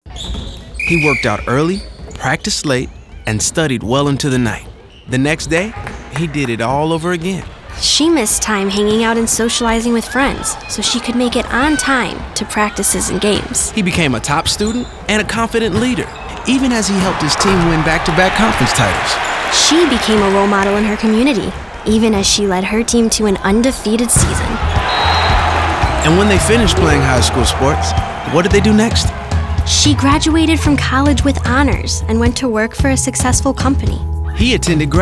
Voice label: audio spot